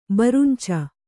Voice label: "ಬರುಂಚ", Kannada